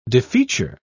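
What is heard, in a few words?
"defeature", British English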